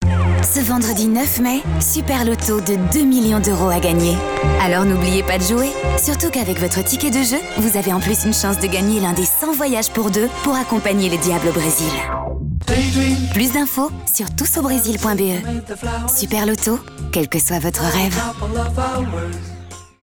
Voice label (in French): Voix F